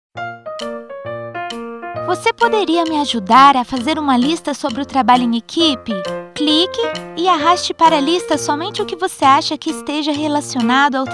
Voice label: Voz Infantil

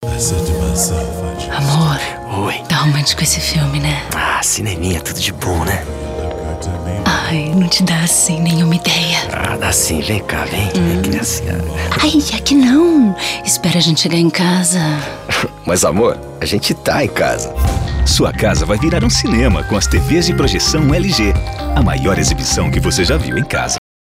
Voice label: Publicidade Voz Sensual

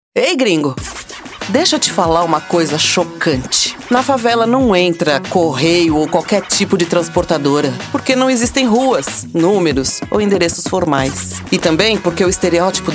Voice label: Institucional Moderno; FAVEX

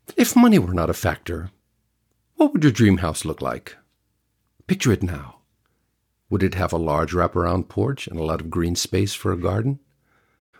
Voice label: Coloquial Inglês

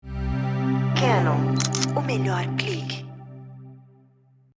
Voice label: Loc Assinatura; Canon

